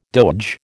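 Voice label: "doge", American English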